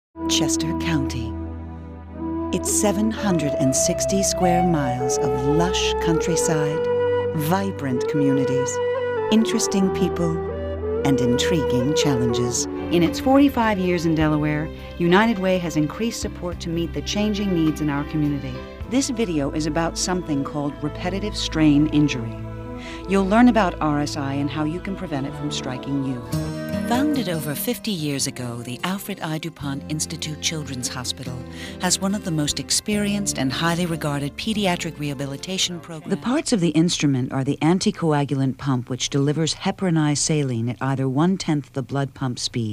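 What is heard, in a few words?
narration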